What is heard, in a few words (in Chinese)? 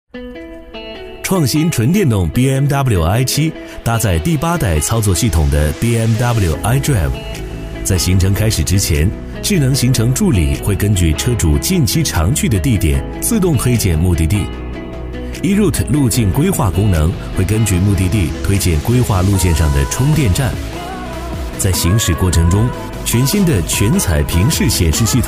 男 汽车广告 时尚科技; 男B3-磁性稳重; 年轻时尚; 《BMWi7漫游指南》